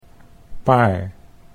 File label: "paar", Dutch